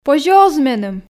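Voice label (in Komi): позьӧ оз меным, Komi, female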